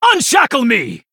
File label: Male BloodElf Attack; en